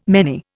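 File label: "many", Indian English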